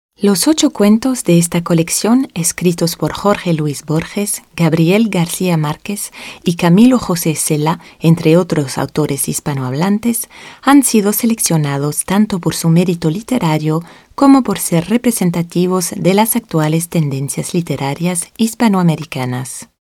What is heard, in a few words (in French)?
Espagnol; voix-off; casting de voix-off; taurus-studio